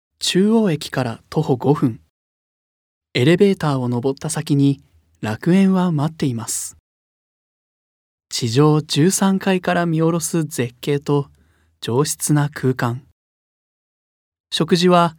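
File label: narration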